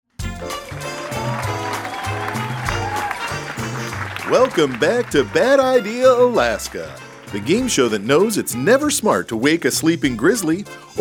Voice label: Radio Spot